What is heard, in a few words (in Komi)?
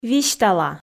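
"висьтала", Komi, female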